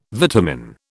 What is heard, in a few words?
"vitamin", British